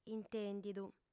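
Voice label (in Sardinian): "intèndidu", female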